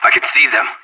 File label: radio enemy spotted; Counter-Strike Global Offensive